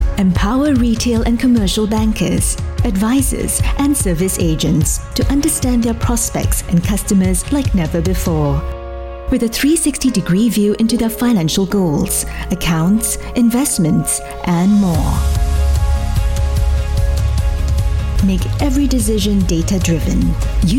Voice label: english international announcements, singaporean english voice actors